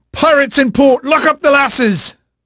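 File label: English male, voice; Pirates Of The Caribbean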